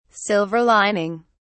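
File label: "silver lining", British English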